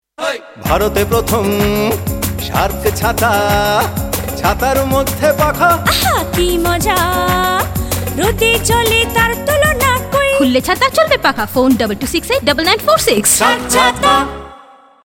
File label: Audio for Commercial; Ads - Umbrella